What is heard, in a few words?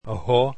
esperanto alphabet h